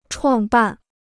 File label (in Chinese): "创办", Chinese